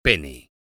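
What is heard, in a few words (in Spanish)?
"pene", Spanish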